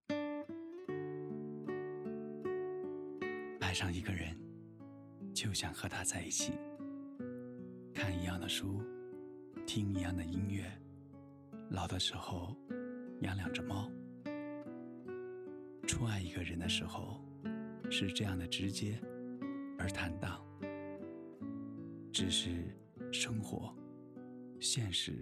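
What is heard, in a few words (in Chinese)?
情感美文混音; 爱在左岸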